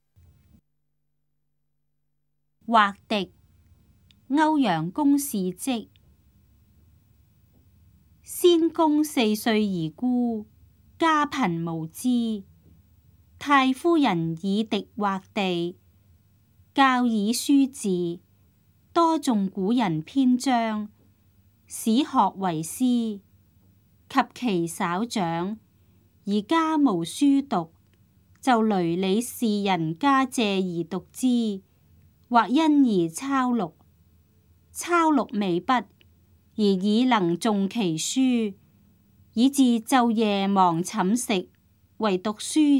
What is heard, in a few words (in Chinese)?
cantonese; 畫荻; 粵語